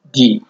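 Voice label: "di", Nigerian Pidgin, male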